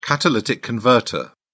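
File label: "catalytic converter", British English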